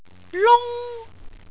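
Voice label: "lung1", Cantonese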